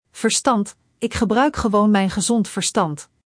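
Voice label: "verstand", Dutch; Spelling Gr4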